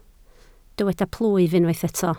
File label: "plwyf", Welsh